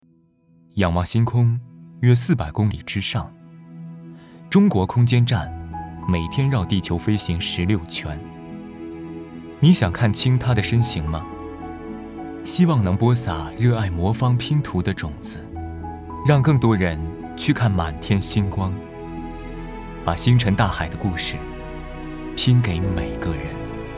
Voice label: 男 素人 高级自然; 仰望星空约400公里之上

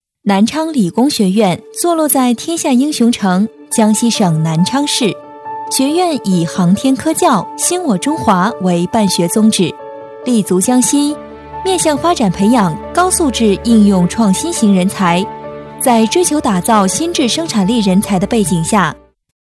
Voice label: 女 专题; 南昌理工

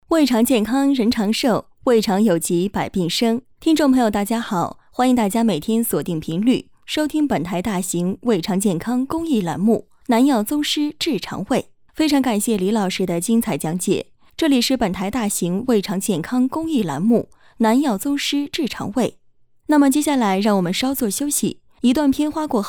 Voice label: 女 电台栏目 健康有方; 南药宗师治胃肠

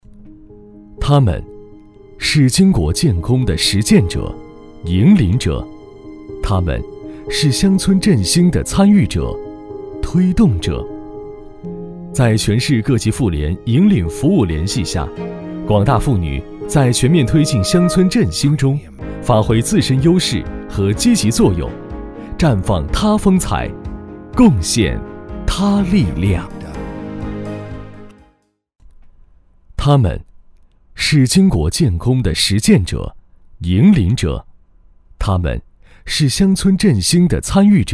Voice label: 男 纪录片 引言

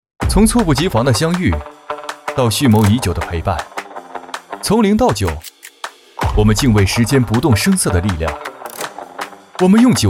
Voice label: 男 企业宣传 活力动感; 银泰9周年

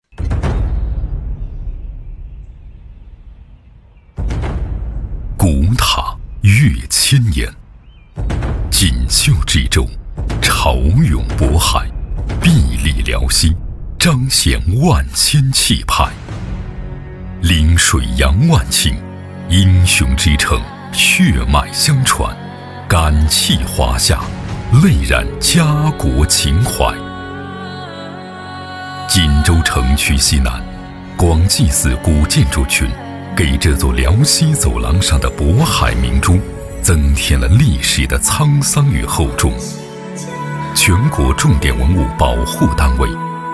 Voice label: 男 宣传 政府 片 历史厚重; 锦州城

